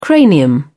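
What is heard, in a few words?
"cranium", English, British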